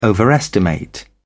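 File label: "overestimate", British English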